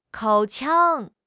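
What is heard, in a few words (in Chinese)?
"口腔", Chinese; HSK6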